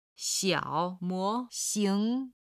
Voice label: "xiao3mo2xing2", chinese

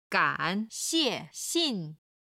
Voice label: "gan3xie4xin4", chinese

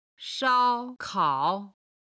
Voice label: "shao1 kao3", Chinese

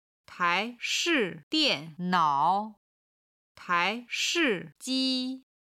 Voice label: "tai2 shi4 dian4 nao3 tai2 shi4 ji1", chinese

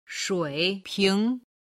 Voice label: "shui3ping2", chinese

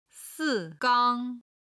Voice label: "si4 gang1", Chinese

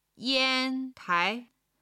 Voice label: "yan1 tai2", chinese

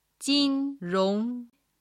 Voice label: "jin rong", Chinese

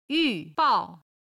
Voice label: "yu4bao4", chinese

